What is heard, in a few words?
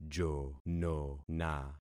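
"jonona", concatenated syllables; Affixing experiment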